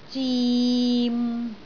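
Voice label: "zim6", Cantonese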